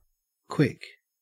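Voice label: "quick", Australian English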